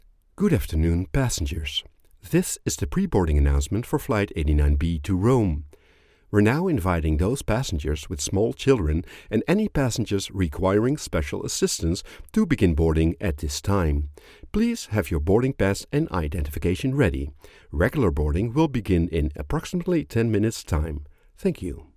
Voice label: Boarding serious